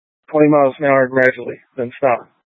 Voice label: Light Rail Emergency, scanner